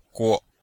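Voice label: "guo", Chinese; Cours de Chinois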